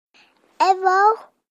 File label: 3 Yr Old Girl Says Hi; kids play